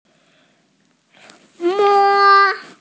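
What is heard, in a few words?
"me1", Mandarin Chinese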